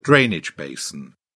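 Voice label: "drainage basin", British English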